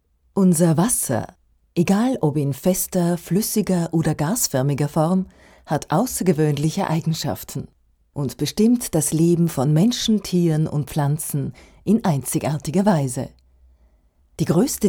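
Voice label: german Austrian female narration; voice demos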